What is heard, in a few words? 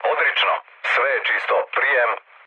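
Serbian chat; War Thunder; speech srb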